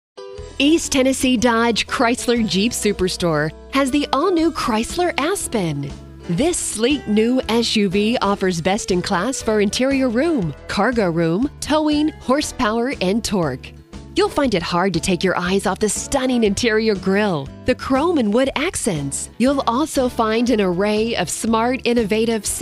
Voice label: truck dealership, female voices; audio on hold